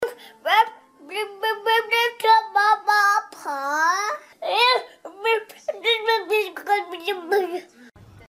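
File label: child talking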